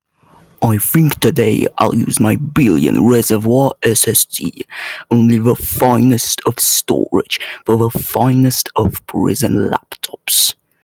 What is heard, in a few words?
voice message